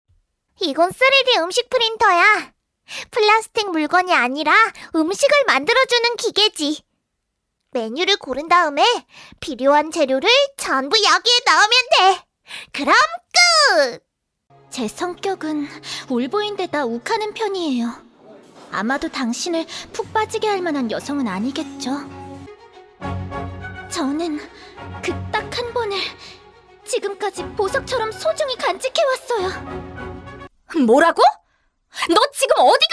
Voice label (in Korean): 남성 (Male), 나레이션; 광고